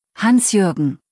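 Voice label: "Hans-Jürgen", German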